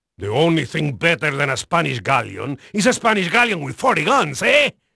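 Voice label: Spanish male, voice; Pirates Of The Caribbean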